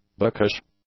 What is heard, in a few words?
"bookish", English; adjectives